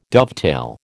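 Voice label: "dovetail", American English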